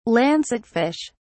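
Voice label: "lancetfish", British English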